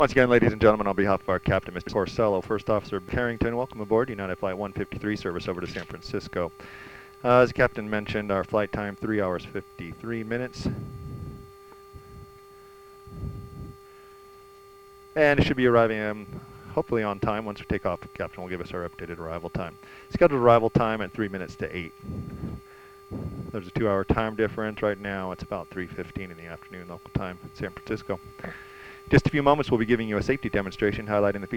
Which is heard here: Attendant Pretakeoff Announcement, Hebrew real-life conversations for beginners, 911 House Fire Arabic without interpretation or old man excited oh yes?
Attendant Pretakeoff Announcement